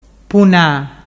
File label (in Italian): puna, dialettu